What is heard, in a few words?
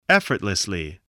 "effortlessly", English